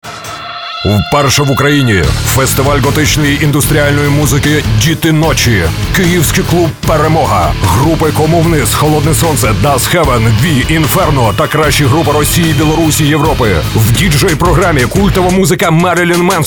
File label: radio trailer ukr; Deti Nochi